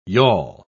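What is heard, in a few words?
"yawl", British English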